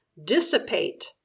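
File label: "dissipate", English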